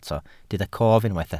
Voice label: "cof", Welsh